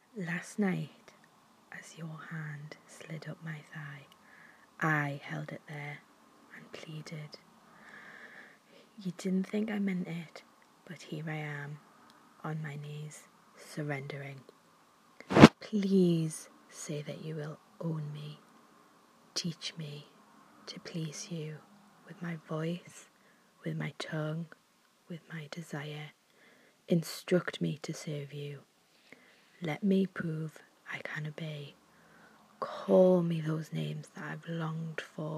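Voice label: female, Submissive, Geordie accent, British Accent, Poetry; F4M; Surrendering; mdom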